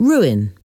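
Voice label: "ruin", English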